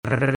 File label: Phoneme Male; Commonscript; Lynguistykon